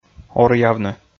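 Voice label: "оръявны", Komi, male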